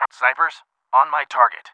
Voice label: snipe, English